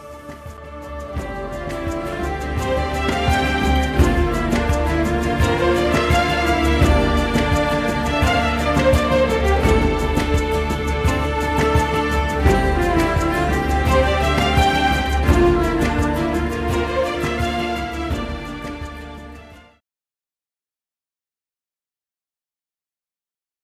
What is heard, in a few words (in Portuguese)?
ANIV ATRASADO PARA FILHA MASC, telemensagem aniversario atrasado familia